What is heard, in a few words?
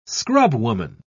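"scrubwoman", British English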